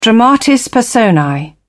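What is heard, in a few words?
"dramatis personae", British English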